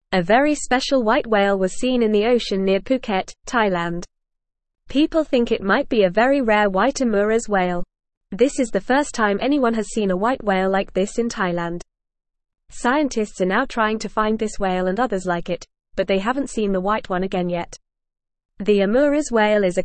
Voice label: English Newsroom Lower Intermediate FAST Reading; Rare White Whale Spotted in Thailands Ocean